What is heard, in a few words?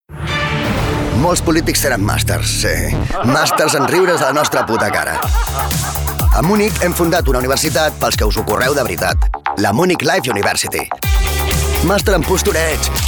male catalan wear comercial; ideasonora